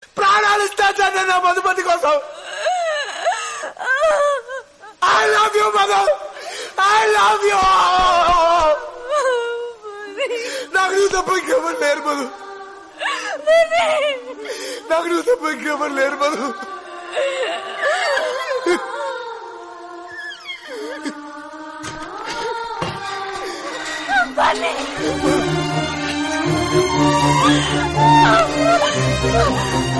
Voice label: Dialogue Telugu Ringtone; Happy